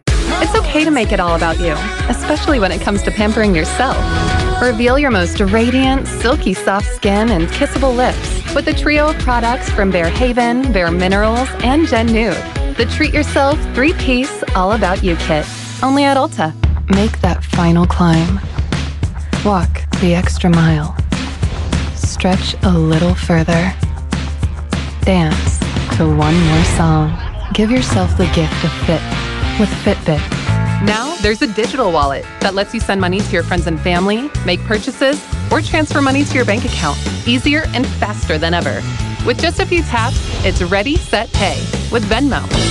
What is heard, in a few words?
Female American Accent